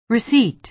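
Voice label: "receipt", American English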